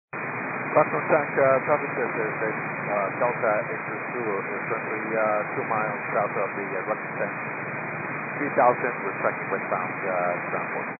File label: 122.925 MHz; scanner radio